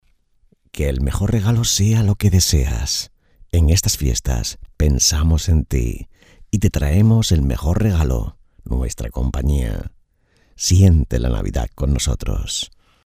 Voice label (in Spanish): navidad indicativo radio television voiceover; Pensamos en ti todos los días. Te acompañamos. También en Navidad siente tu emisora cerca. Vive la navidad con nosotros